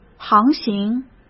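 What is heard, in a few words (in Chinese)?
"航行", Mandarin Chinese; hsk; chinese-flashcards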